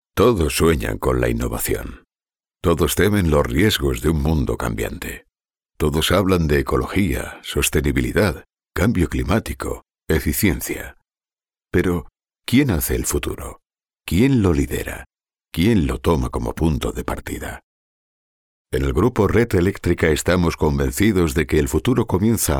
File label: SPA Voice Talent Corporate Friendly